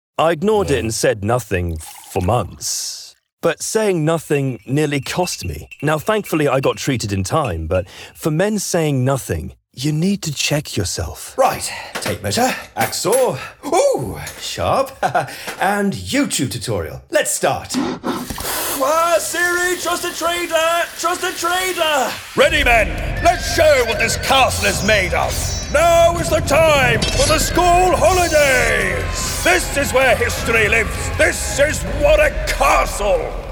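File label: comercial, British English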